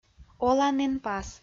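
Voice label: "оланінпас", Komi, female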